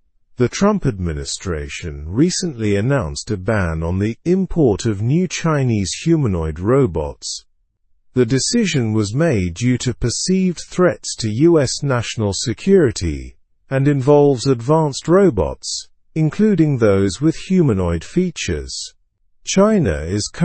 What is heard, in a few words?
learn english through news upper intermediate; us ban on chinese humanoid robots explained; slow